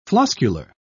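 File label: "floscular", British English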